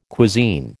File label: "cuisine", American